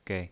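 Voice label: "gei", Mandarin Chinese, neutral tone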